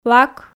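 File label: "лак", Komi, female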